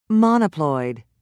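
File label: "monoploid", British English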